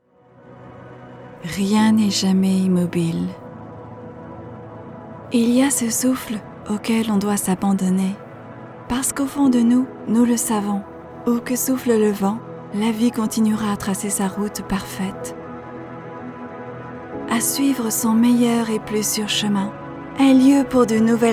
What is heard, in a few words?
french documentaries